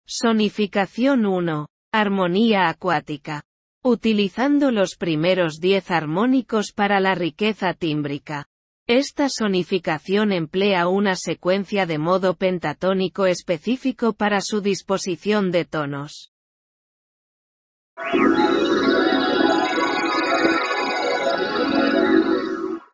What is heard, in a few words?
sonification aquatic chord audio description ES